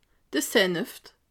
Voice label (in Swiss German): "saneft", alsace